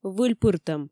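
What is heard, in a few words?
"выльпыртӧм", Komi, female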